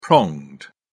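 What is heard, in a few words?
"pronged", British English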